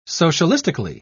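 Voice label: "socialistically", British English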